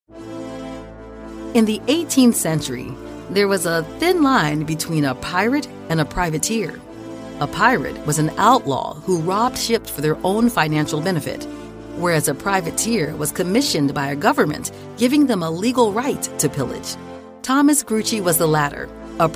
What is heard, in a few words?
Audio Guide Sample English